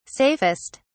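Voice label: "safest", English, British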